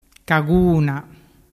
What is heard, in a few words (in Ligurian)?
"caguna", dialettu